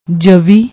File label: "javi", Punjabi